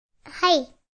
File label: Toddler "Hi"; Talking